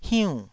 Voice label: "hiunn4", taiwanese